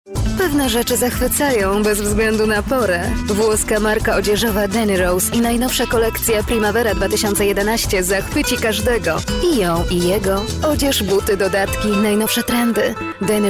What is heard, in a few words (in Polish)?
F REKLAMA